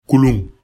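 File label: "kulun", Bambara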